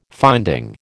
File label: "finding", American